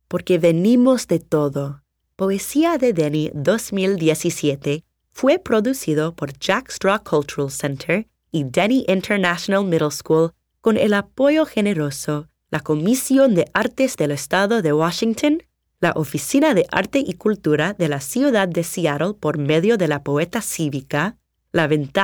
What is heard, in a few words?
Closing Narration